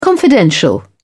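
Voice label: "confidential", British English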